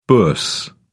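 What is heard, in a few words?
"bourse", British English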